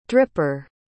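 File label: "dripper", English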